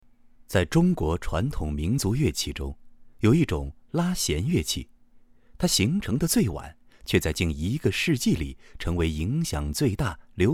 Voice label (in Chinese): 专题纪录片试音